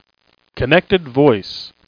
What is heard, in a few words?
connected voice (male)